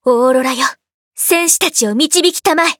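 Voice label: start, Japanese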